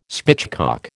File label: "spitchcock", American